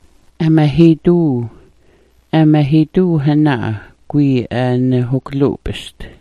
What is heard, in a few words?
"amahiiduu", Smalgyax